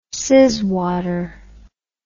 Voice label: "sizzwater", English

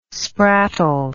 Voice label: "sprattle", English

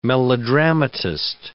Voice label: "melodramatist", English

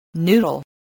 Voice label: "noodle", voice, spelling

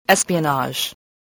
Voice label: "espionage", voice, english

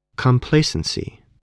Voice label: "complacency", english

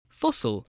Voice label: "fossil", English voice, spelling

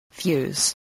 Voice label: "fuse", voice, English

